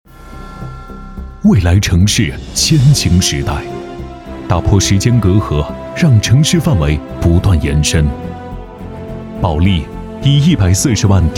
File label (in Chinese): 男 宣传片 城市规划设计院; 阳江市城市规划设计院